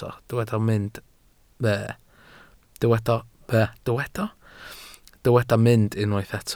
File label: "mynd", Welsh